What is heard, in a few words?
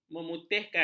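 "memutihkan", Malay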